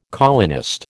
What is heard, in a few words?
"colonist", American